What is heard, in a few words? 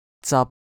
"zap1", cantonese